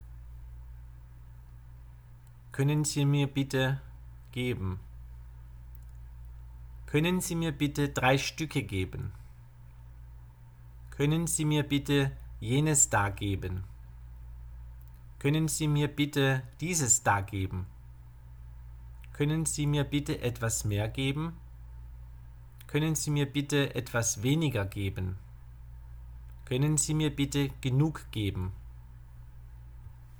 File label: German lesson ordering; German phrasebook